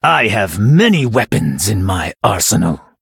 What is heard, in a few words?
Male Blood Elf; TRIGGER Meteor; en